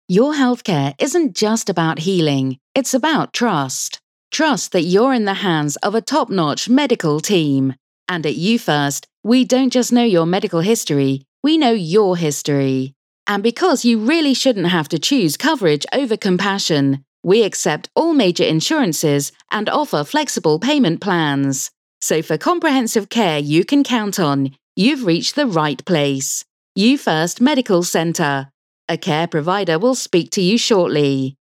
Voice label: Sincere